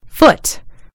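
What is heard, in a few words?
"foot", British English; gcompris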